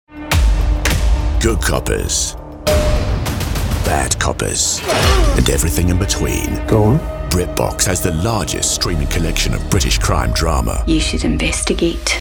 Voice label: Gritty Crime; BRITBOX